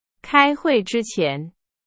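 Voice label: Chinese, example sentence; hsk4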